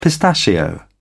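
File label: "pistachio", British English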